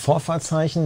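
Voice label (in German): "vorfahrtszeichen", German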